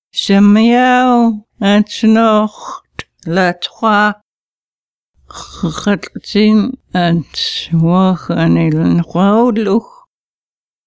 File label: narrative